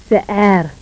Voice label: voiceless epiglottal stop medial, agul

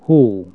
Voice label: snow, hungarian Consonants

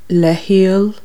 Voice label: with lime, Irish, Consonants

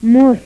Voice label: voiceless pharyngeal fricative final, agul

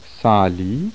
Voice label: voiceless alveolar initial, polish

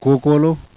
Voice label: palatal, yanyuwa

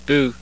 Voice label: central rounded, norwegian

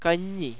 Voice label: palatal nasal, malayalam

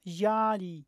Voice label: voiced palatalized postalveolar initial, polish

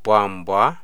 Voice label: pipe conduit, amharic Consonants

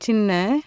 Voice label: "small", Tamil consonants; JIPA

